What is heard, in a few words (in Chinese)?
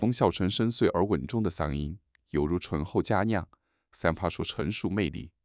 磁性低音男; 中、英; longxiaocheng v2